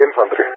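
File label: "infantry", English speech; Allied Flight; DCS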